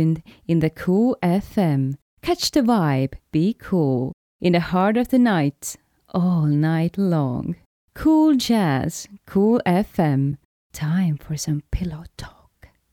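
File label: voice reel English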